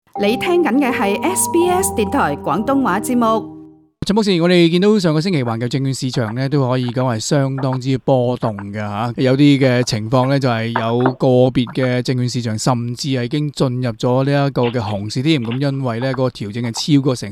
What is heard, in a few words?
cantonese; 美国通胀高企及欧洲地缘政治冲击市场; SBS Chinese; global finance